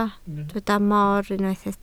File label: "mor", Welsh